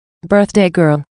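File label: "birthday girl", English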